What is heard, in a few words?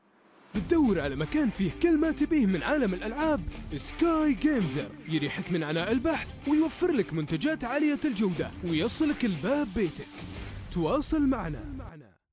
Saudi